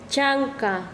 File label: "pierna", Quechua Chanka